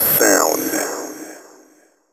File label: techno male 140; Vocals